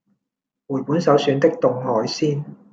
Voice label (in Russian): Голоса, Гонконгский